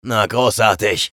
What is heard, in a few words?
vo chatter negative; eisen; respawn